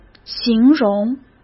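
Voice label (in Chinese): "形容", Mandarin; chinese-flashcards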